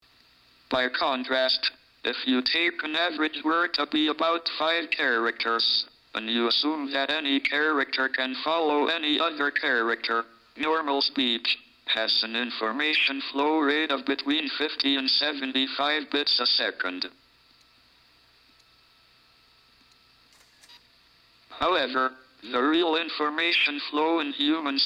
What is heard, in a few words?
Voice tape